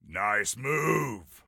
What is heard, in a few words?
Nice Move, Soldier Male; Compliment; Soldier Male 03